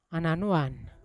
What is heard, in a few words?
"ananovon" to steal Yawa